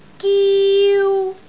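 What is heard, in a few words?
"giu1", Cantonese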